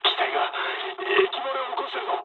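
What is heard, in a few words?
damaged water mood low, speech japanese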